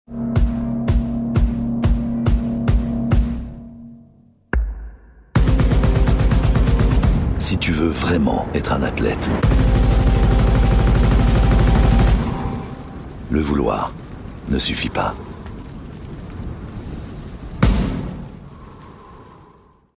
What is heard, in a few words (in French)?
spot TV; Asics Want It More